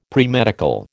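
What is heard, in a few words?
"premedical", American English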